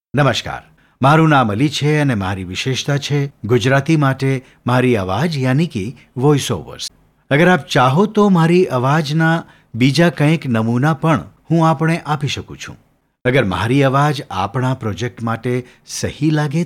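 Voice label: Gujarati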